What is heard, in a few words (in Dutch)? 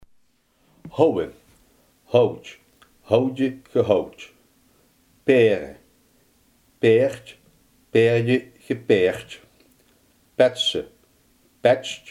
"slaan", Limburgs